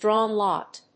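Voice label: "drawn lot", English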